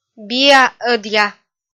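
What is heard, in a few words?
"биа-ӧдъя", Komi, female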